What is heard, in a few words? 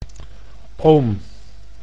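"oom", Dutch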